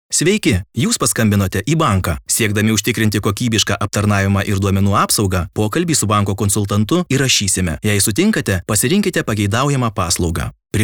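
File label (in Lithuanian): Advertisement men Lithuanian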